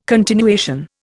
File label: "continuation", Indian